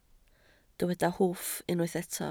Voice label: "hwff", Welsh